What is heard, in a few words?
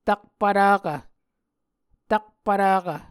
"takpvtakv", Muscogee